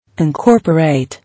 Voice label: "Incorporate", English